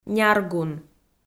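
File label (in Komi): "няргун", Komi, female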